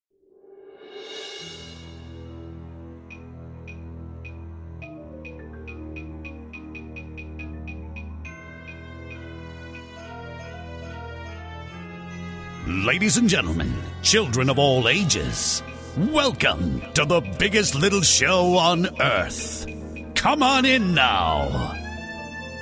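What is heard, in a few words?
full with voice overs; step right up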